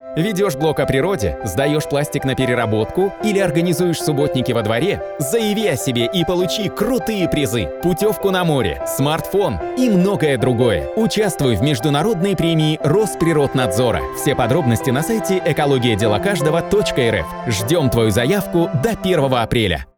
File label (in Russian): экология радио